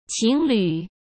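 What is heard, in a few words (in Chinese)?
"情侣", Mandarin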